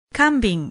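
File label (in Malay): "kambing", Malay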